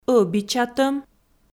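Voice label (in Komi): "ӧбичатӧм", Komi, female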